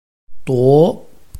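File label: "duo", Chinese, tone 2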